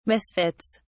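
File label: "misfits", British English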